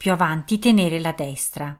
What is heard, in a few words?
Ah Keep Right, Italian female